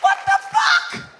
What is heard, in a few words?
what the fuck, cartoon